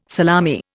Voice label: "salami", Indian